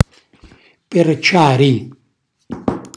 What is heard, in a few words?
"perciari", Calabrese; cucina calabrese